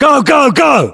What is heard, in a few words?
voiceovers, US; english